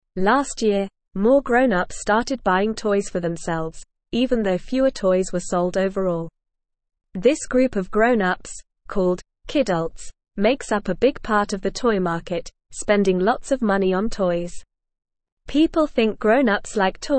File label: English Beginner NORMAL Reading; Grown ups are buying toys for themselves